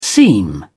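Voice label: "seam", British English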